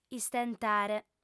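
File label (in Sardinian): "istentare", Sardinian, female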